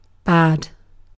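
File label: "bad", British English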